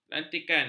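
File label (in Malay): "lantikan", Malay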